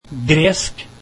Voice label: Greek, norwegian